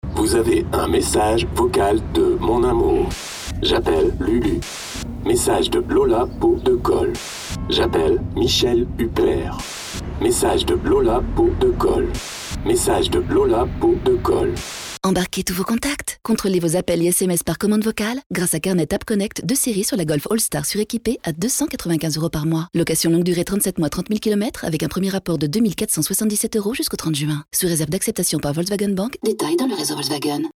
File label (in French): RADIO; VW; GOLF